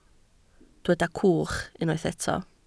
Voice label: "cwch", Welsh

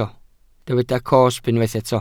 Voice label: "cosb", welsh

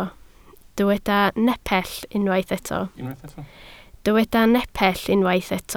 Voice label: "nepell", Welsh

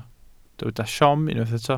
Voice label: "siom", Welsh

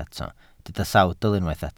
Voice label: "sawdl", Welsh

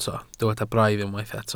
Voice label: "braidd", Welsh

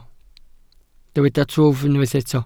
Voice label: "twf", Welsh

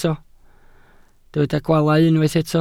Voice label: "gwaalau", welsh

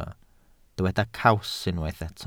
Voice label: "caws", welsh

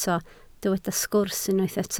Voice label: "sgwrs", Welsh

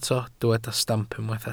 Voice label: "stamp", welsh

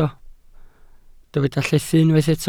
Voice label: "llethu", Welsh

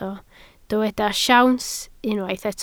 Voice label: "siawns", Welsh

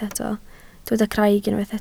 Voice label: "craig", Welsh

